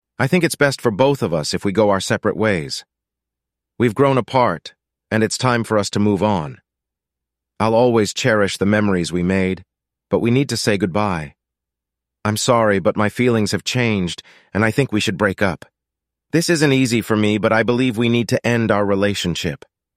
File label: synthesized audio